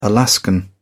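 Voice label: "alaskan", British English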